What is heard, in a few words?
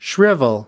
"shrivel", us